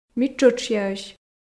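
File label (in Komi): ми тшӧтшъяӧсь, Komi, female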